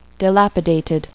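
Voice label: "dilapidated", English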